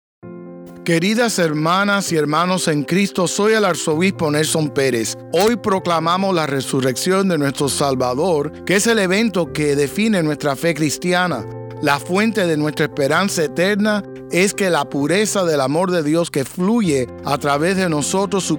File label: Spanish with music; Archbishop Perez Easter Message 2020